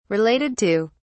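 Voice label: "related to", British English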